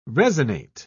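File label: "resinate", British English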